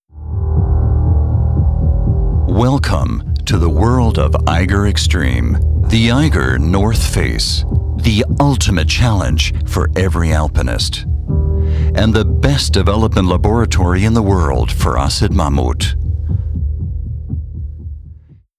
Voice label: american english male; MAMMUT